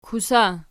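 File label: "kusa", nominative, Archi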